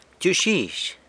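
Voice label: "btyux guizh", San Lucas Quiaviní Zapotec; tomatillo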